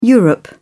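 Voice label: "europe", British English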